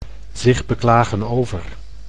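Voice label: "zich beklagen over", Dutch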